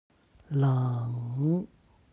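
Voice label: "leng", Chinese; 冷; 寒い; HSK Level One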